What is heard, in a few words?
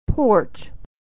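"porch", American English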